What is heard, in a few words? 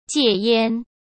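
"戒烟", Mandarin Chinese; hsk; chinese-flashcards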